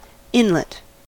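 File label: "inlet", US English; pronunciations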